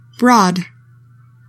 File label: "BROAD", english; 3000 words